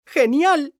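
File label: "genial", Spanish; mekanta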